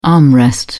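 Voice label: "armrest", British English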